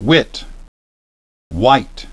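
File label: "whit" "white", English pronunciation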